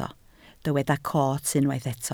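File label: "coot" Welsh, spoken word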